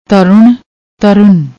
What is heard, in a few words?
"tarunn", Punjabi; ਤਰੁਣ